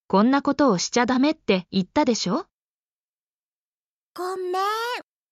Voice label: Emotion Level: Slight frustration, but still controlled; 「こんなことをしちゃダメって言ったでしょ？（konnakotowoshichadametteittadesho？）」「ごっめーん（gommen！）」